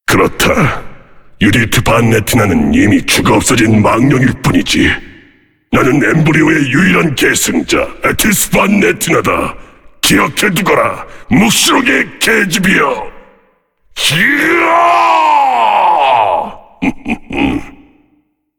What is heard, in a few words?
cinematic voice; lineage2